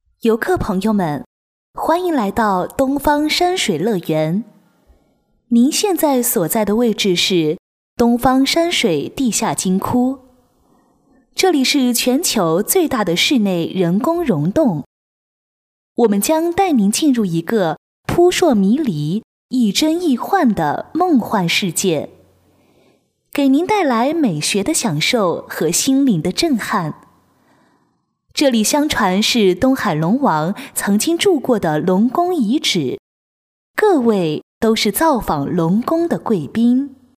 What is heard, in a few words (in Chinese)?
女-课件-东方山水广播语音